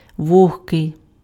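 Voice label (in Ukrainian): "вогкий", Ukrainian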